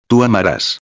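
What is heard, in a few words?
"amaras", Spanish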